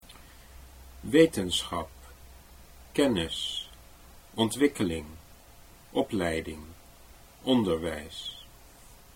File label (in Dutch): wetenschap kennis, Dutch